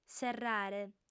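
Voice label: "serrare", lingua sarda, female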